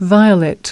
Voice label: "violet", us

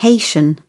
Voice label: "haitian", British

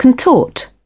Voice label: "contort", British

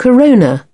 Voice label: "corona", British

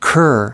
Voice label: "cur", US pronunciation

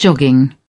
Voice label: "jogging", British

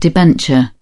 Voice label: "debenture", British

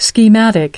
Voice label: "schematic", us

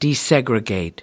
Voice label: "desegregate", us

